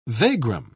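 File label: "vagrom", British English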